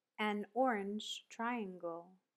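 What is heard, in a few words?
"an orange triangle", English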